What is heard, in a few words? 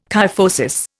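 "kyphosis", Indian English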